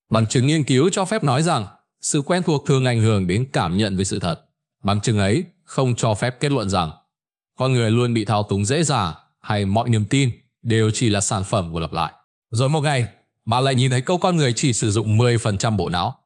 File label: narration